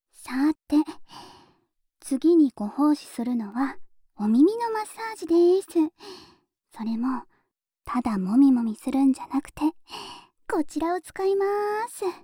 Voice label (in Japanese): お耳のマッサージなんていかがですか?（オイルマッサージ）, ASMR